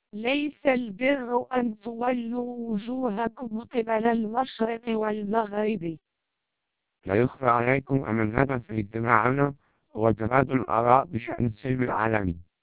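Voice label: TWELP 400 bps Vocoder, Arabic speech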